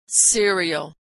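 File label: "cereal", American English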